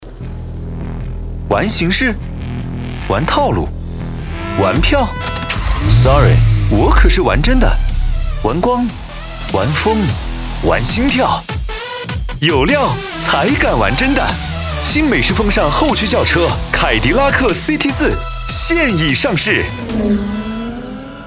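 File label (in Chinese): 广告年轻活力; 凯迪拉克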